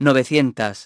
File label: "nine hundred", feminine; iOS 4